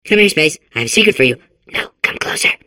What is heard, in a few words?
babble; portal2